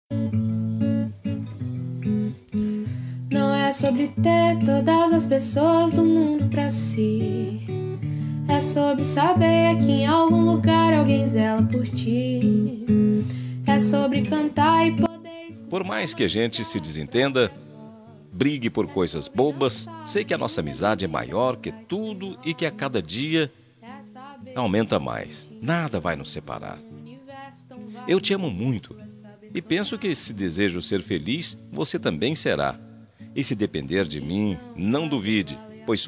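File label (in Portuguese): aniversario irmao masculino, telemensagem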